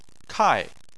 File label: "kai4", Chinese